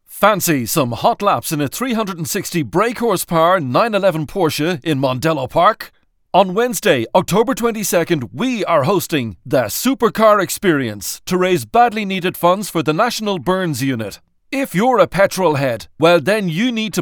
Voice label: radio ad fast